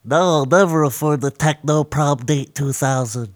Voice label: Nerd Lose; blackjack